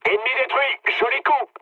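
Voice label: French player killed enemy mood med; War Thunder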